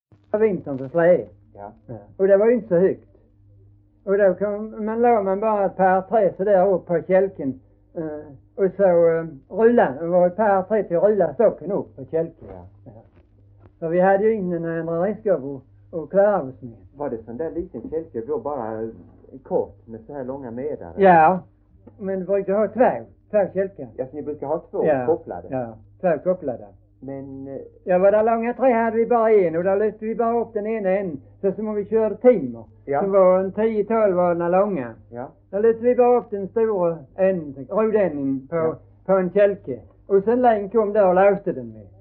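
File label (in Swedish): Hörja Skåne, dialekter; Folkminnen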